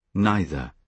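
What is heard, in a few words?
"neither", British English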